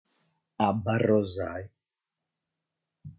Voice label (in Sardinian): "Abbarrosai", sardu